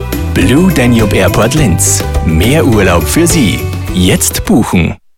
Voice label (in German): de-at werbung